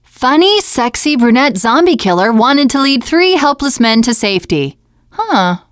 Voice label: bulletin board, teen girl